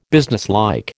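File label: "businesslike", American English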